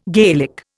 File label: "Gaelic", Indian